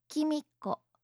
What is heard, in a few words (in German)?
"kimiko", japanische maedchennamen